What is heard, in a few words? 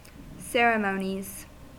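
"ceremonies", US English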